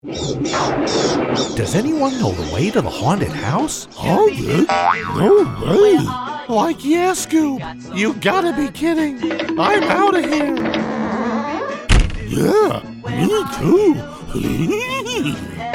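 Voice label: British English, Cartoon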